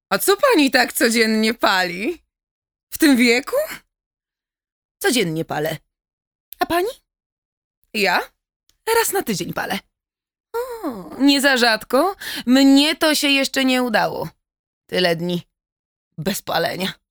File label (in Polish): reklama znicze